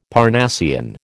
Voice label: "Parnassian", American